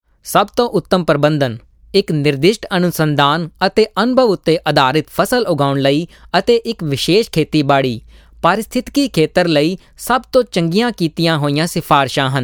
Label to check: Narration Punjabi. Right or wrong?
Right